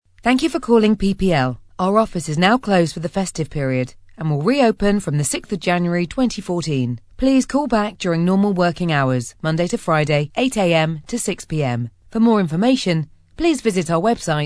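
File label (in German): British English, Kommentar, Freundlich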